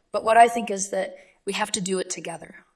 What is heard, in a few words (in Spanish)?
Voice Acting es